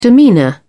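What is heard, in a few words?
"demeanour", British English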